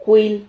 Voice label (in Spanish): "juil", Silabas